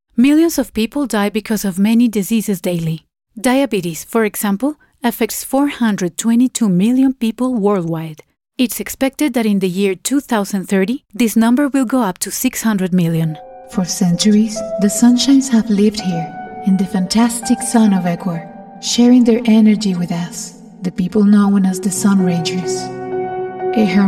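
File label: female, Ecuadorian Spanish, accented English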